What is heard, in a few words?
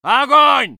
voice sov infantry